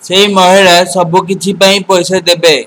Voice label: pay, odia

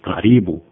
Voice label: welcome, swahili

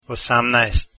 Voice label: "18", Croatian

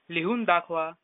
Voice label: write, marathi; लिहून दाखवा

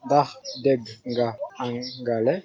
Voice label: do you speak english, wolof

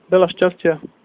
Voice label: good luck, slovak

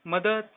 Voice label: help, marathi

